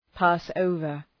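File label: "pass over", English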